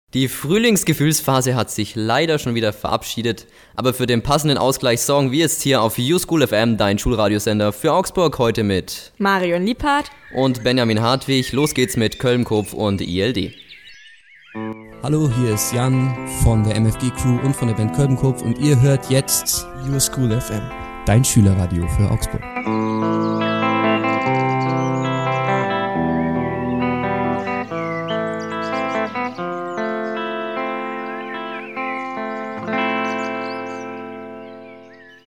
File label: aircheck